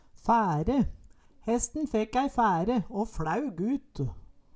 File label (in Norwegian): fære, Numedalsmål